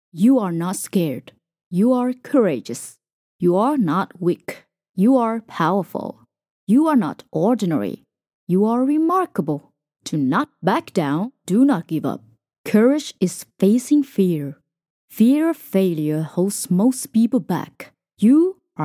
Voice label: Motivational Strong

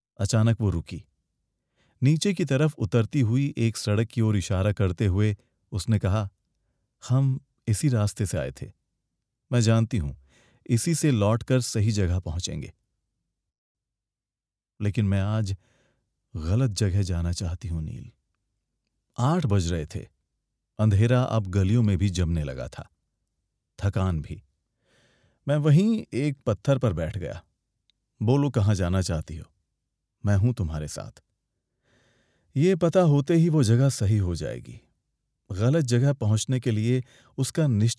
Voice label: Audiobook Hindi

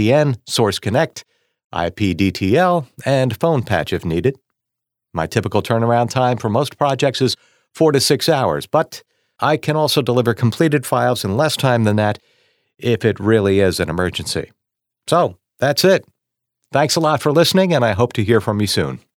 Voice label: studio sample

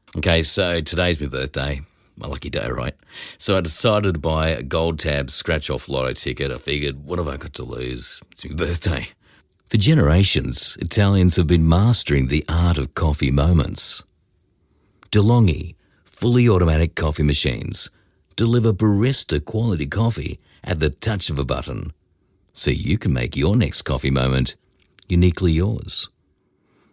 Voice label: Conversational

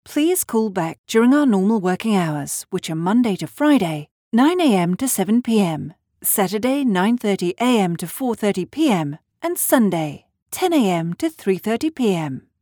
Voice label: IVRs

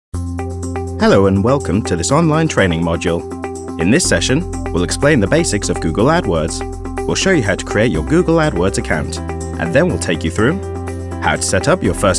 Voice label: Narrative

